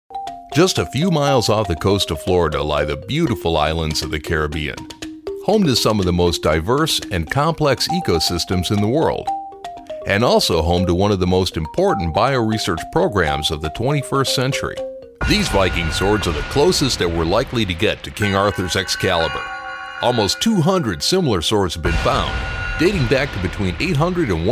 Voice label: narration